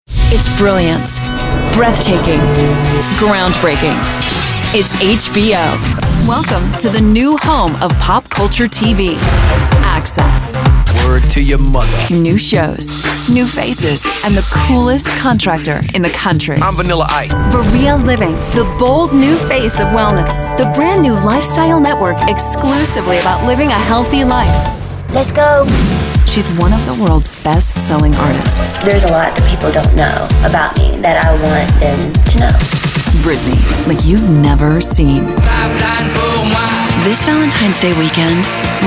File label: promo, women